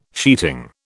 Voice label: "sheeting", British

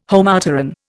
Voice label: "Homopteran", Indian

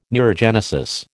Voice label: "neurogenesis", American

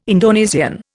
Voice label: "Indonesian", Indian

